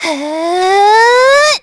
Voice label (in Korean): Vox Casting, kr; kingsraid; voices heroes